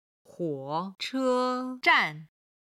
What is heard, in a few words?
"huo3 che1 zhan4", Chinese